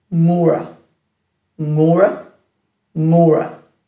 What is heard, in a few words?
"ngura", Dhurga